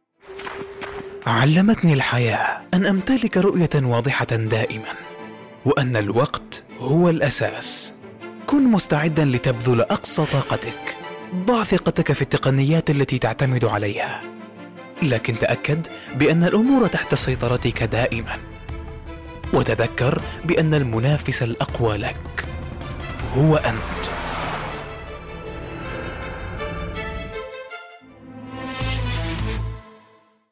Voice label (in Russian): Мужской арабский